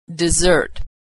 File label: "dessert", pronunciation